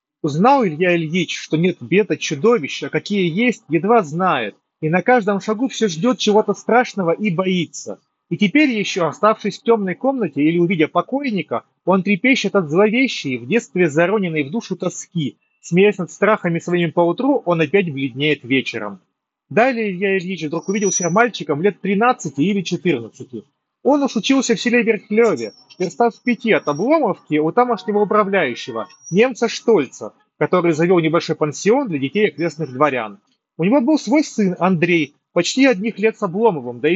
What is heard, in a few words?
Mic noise; moondrop golden ages2